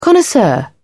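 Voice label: "connoisseur", British English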